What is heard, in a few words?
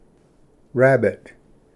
"ᏥᏍᏚ", Pronunciation; rabbit